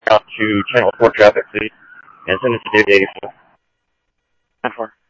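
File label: Simulcast police department, scanner audio trunk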